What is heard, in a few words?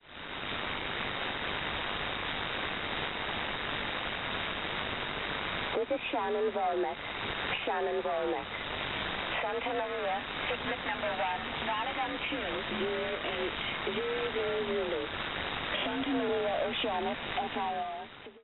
Volmet, 5505 kHz; Shannon Volmet; Perseus; Schwarzsee